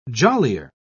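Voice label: "jollier", English, British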